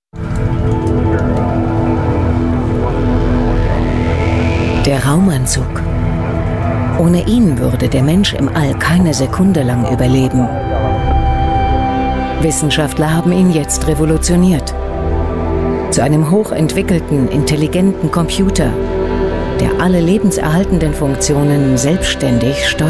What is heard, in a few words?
trailer